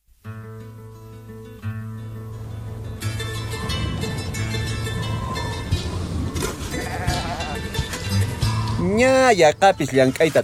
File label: RADIO DRAMA QUECHUA